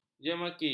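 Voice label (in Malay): "jemeki", Malay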